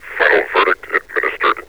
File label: final verdict administered, metropolice vo; Half Life Alyx